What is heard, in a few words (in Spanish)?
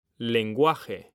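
"LENGUAJE", male; mekanta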